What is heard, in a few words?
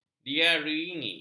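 "diiringi", Malay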